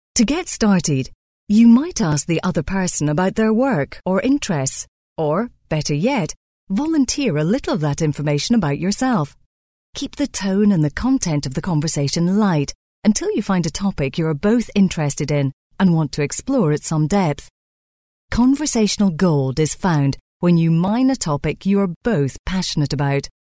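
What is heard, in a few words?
documentary narration, female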